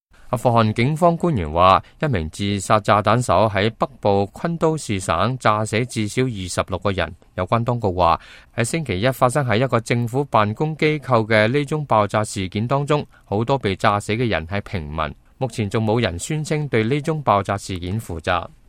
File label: cantonese, news